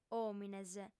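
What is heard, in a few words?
"òmines", Sardinian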